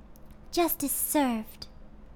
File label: "Justice Served", human girl heroic; customnpcs